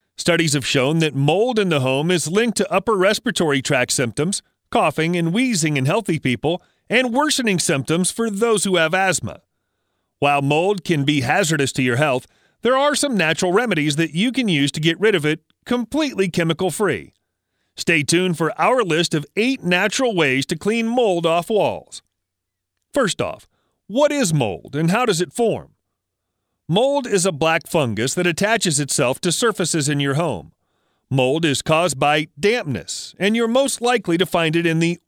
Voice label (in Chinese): 外籍男英 外语 美式英语